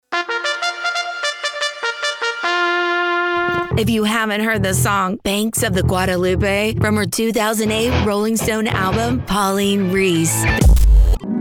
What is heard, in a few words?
radio broadcast; Classy – Raspy – Sassy